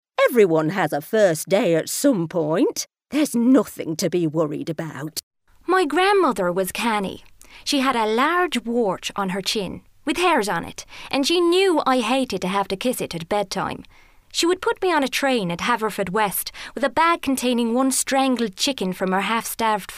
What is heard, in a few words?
Accents